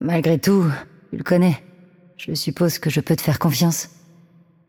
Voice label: fr FR Intro, VO; Yunara; bloom minigame